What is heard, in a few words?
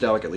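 "delicately", US English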